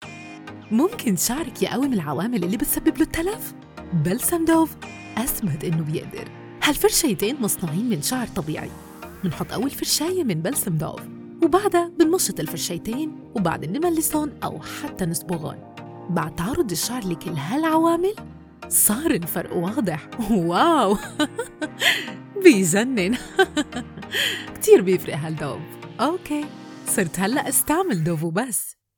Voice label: Commercial; Dove